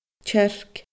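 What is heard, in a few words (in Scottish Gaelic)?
"tearc", Scottish Gaelic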